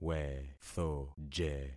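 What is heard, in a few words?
"wethoje", concatenated syllables; Affixing experiment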